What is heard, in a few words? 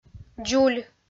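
"дзуль", Komi, female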